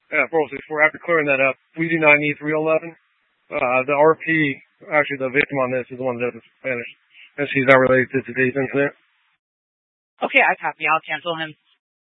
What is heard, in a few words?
Scottsdale Police, Simulcast